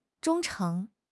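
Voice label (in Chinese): "忠诚", Chinese; HSK6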